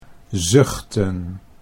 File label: "zuchten", Dutch